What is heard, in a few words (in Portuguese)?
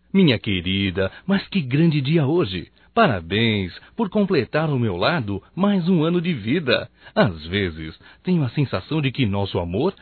ANIV Romântico (M)